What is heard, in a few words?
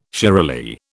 "shiralee", British